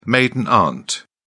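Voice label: "maiden aunt", British English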